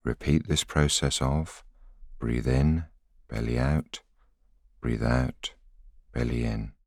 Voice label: voiceover